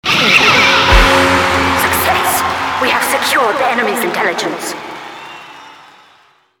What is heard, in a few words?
excited; intel team captured; tf2